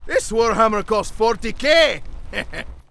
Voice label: Angry; warcraft3; Gryphon Rider